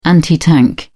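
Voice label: "anti tank", English, British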